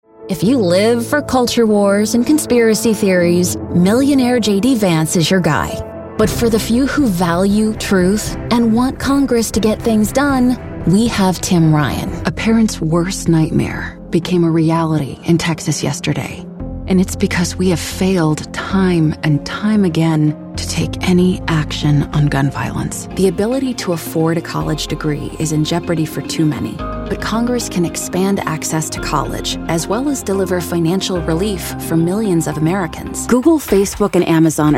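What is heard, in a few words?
Political, Female Voice Over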